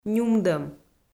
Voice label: "нюмдӧм", Komi

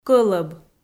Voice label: "кӧлӧб" Komi

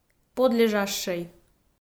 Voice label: "подлежащӧй", Komi

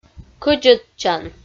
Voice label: "кӧдзӧдчан" Komi

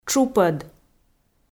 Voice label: "тшупӧд", Komi

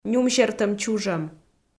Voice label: "нюмсертӧм чужӧм", Komi

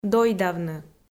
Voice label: "дойдавны", Komi

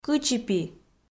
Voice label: "кычипи" Komi

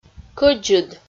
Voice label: "кӧдзыд" Komi

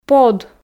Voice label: "под", Komi

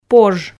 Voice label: "пож", Komi

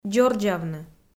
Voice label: "дзордзавны", Komi

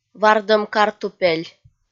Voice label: "вардӧм картупель" kpv f

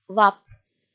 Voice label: "вап" kpv f

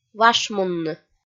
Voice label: "вашмунны" kpv f

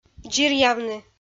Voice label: "дзиръявны", Komi

